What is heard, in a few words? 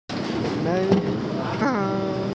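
"mi4feng1", Mandarin Chinese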